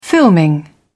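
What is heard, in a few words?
"filming", British English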